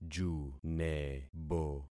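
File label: "junebo", concatenated syllables; Affixing experiment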